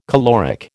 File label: "caloric", American